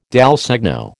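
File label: "dal segno", American English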